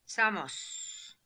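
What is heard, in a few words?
"Samos", pronunciations; Fourth Way; Beelzebub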